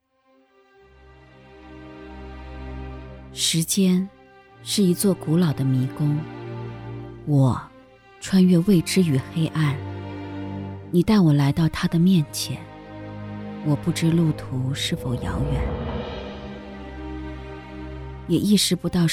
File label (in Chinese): 独白; 往世情缘独白